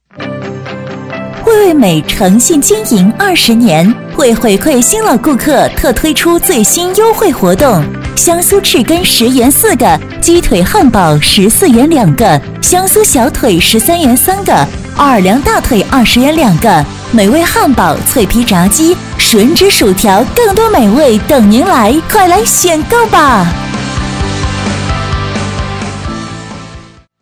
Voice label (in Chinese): 女, 餐饮广告, 激情热情; 磁性质感; 甜美亲切; 味味美